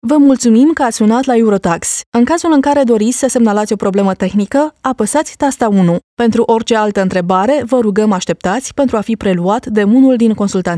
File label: IVR; EuroTax